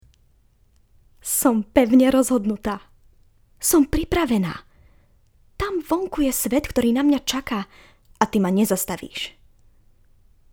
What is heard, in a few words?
female slovakian narration